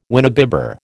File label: "winebibber", American